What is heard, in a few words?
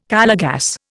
"Calor gas", Indian